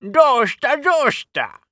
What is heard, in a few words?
oldman attack